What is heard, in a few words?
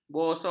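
"bosor", Malay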